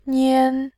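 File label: "nian", Mandarin, tone 1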